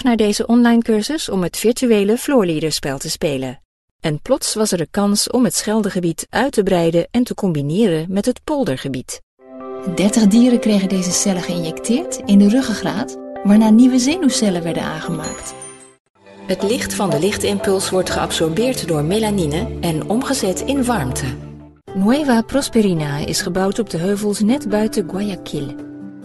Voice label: E-learning Dutch